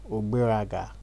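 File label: "ogberaga", Nigerian Pidgin, male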